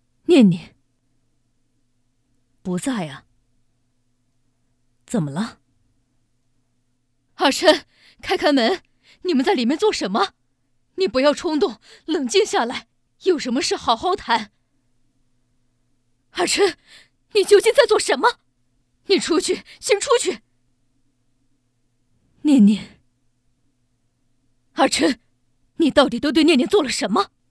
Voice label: 女, 中年妇女

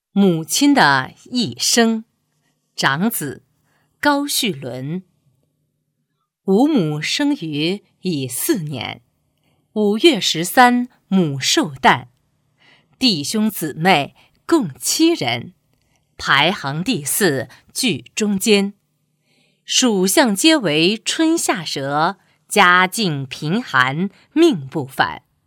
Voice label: 女 韵律诗 打油诗; 母亲的一生

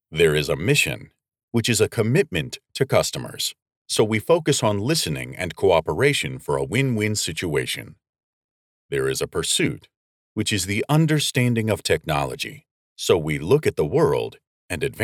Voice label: 低沉

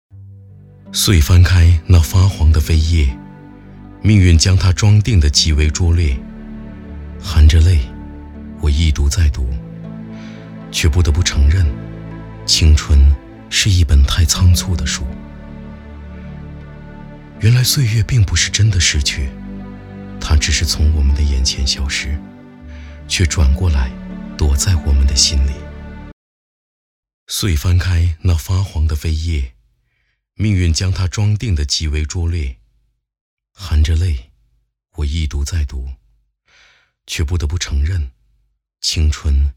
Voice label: 男 独白 哀伤

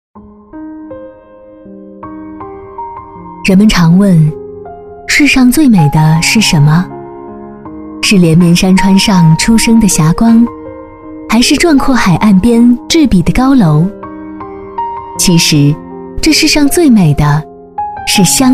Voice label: 女 旁白 医护 温暖

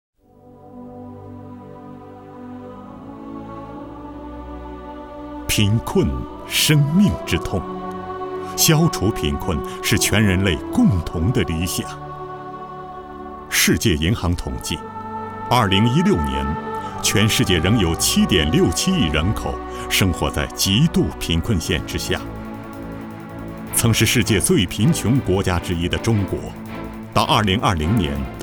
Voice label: 男 央视专题; 中国扶贫建小康

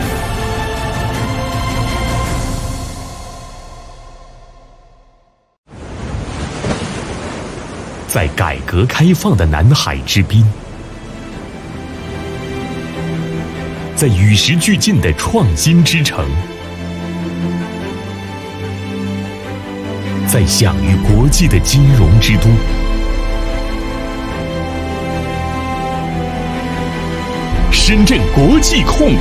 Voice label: 男, 宣传片; 南海发展; 国际发展

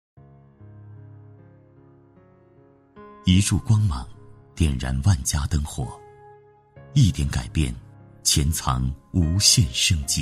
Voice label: 男 专题 温暖感动风格, 浑厚磁性

